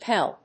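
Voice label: "pell", English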